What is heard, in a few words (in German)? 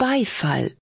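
"Beifall", German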